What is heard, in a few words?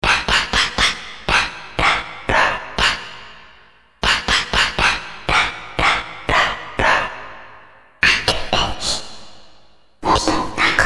whisper; vocaloid